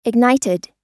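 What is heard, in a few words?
"ignited", US English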